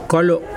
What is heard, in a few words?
"root", Mokilese